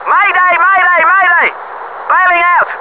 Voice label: BAIL, British; combatfs

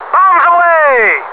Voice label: BOMBS AWAY, American; combatfs

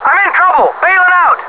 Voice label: Bail Out, American; combatfs

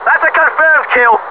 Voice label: GOOD SHOT, British; combatfs

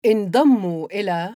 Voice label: arabic Queen; age2

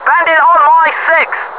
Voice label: UNDER ATTACK, British; combatfs

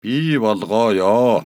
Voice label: mongolian Religious; age2

